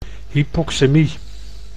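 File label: "hypoxemie", Dutch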